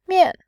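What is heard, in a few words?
"mian", Mandarin Chinese, fourth tone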